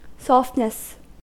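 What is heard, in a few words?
"softness", US English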